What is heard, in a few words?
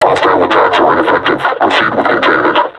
taunt, vo combine suppressor; Half Life Alyx